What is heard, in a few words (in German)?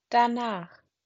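"danach", German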